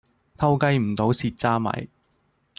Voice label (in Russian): Голоса, Гонконгский; commonvoice